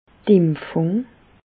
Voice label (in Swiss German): "impfung", Bas Rhin; medecine; vaccination